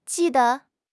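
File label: "ji4 de5", Chinese; HSK; HSK3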